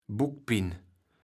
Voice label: "Buckpinn", kaevels platt

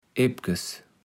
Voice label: "ebkes", kaevels platt

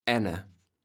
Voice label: "änne", kaevels platt

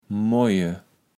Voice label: "moje", kaevels platt

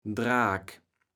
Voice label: "Draak", kaevels platt

